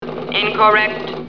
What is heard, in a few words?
incorrect, computer voice